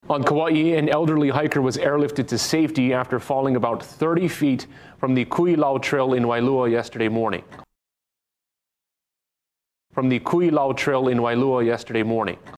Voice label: kuilau trail, pronunciation hawaii